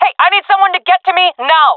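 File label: request help, female; vo; agents; jungle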